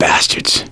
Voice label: reaction negative, voice; gambler